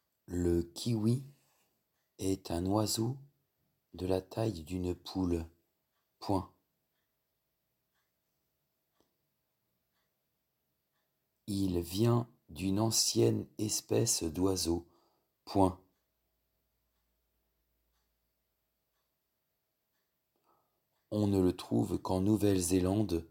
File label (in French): Dictée flash phrases